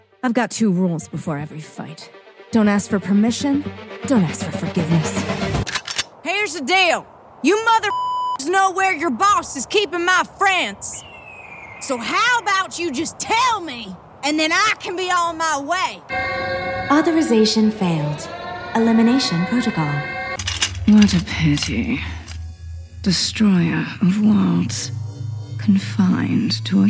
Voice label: Interactive VO, female voices